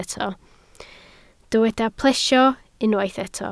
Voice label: "plesio", Welsh

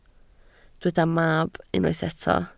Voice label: "mab", Welsh

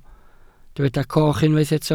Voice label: "coch", Welsh

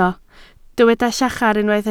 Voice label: "llachar", Welsh

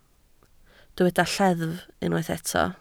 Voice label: "lleddf", Welsh

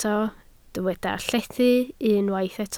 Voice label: "llethu", Welsh

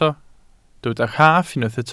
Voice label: "rhaff", Welsh

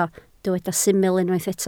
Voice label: "syml", Welsh

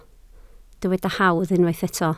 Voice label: "hawdd", Welsh

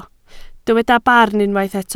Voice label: "barn", Welsh

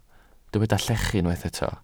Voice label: "llechu", Welsh

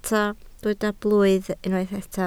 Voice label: "blwydd", Welsh

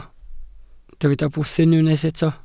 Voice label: "bwthyn", Welsh

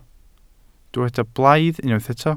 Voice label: "blaidd", Welsh

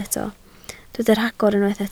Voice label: "rhagor", Welsh

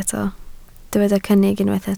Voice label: "cynnig", Welsh

